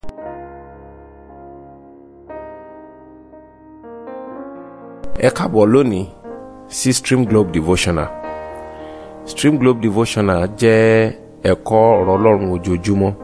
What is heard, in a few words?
Devotional Audio Yoruba